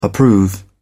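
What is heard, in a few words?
"approve", British English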